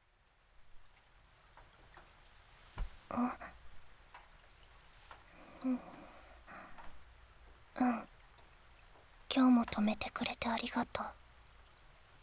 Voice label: 雨降りバージョン, ASMR; 田舎のダウナー幼馴染の子作りを手伝って…

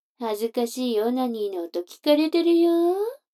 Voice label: 女の子 台詞, ASMR

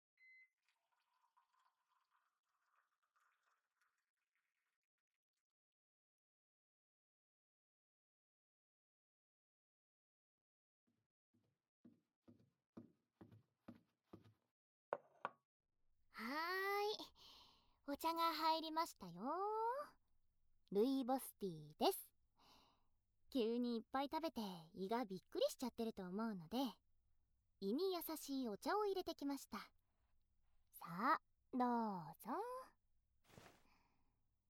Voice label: 膝枕で目元＆首元マッサージですよ(吐息）, ASMR

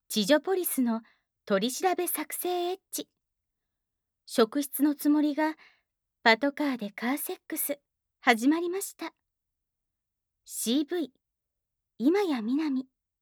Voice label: タイトルコール, ASMR

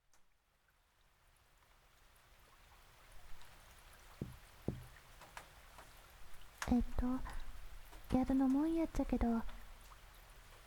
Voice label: 宮崎弁 (雨降りバージョン), ASMR; 田舎の古宿ン娘の夜伽

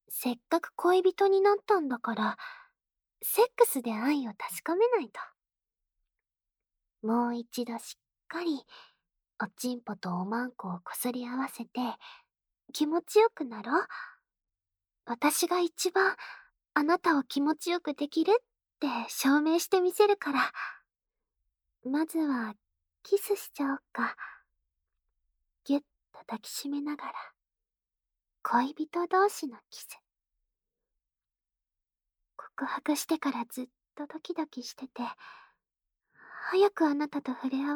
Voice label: 超ささやき; ロッカーの中で超ささやきセックス♪

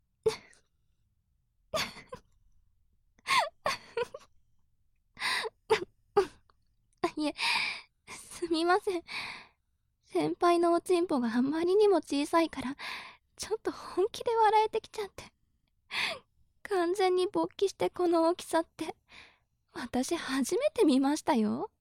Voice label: 調教中音声

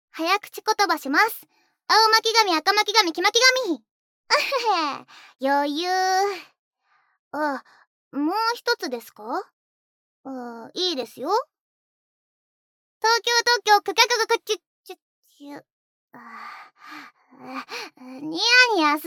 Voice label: 早口言葉, ASMR

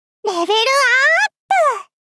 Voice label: レベルあ～ぷ！少女×高音域

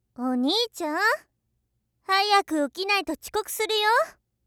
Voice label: 目覚ましボイス; お兄ちゃん？早く起きないと遅刻するよ？

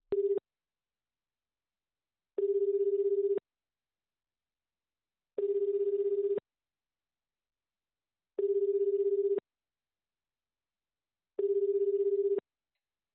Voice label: ご予約電話, ASMR

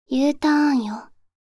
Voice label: Uターンよ, カーナビ 案内音声; カーナビ(で使えるかもしれない)案内音声

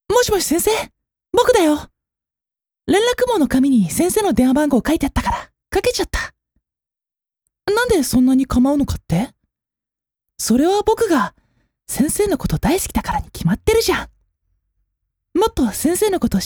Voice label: 「おやすみ」ショタ

